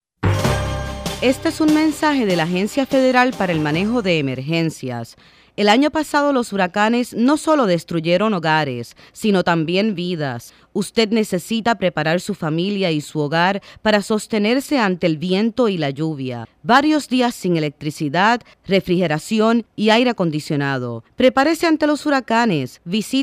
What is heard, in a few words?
Hurricane PSA; fema; ESP